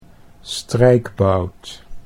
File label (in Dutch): "strijkbout", Dutch